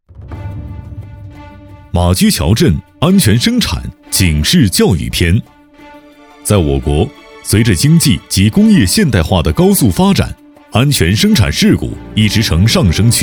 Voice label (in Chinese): 专题庄重汇报警示教育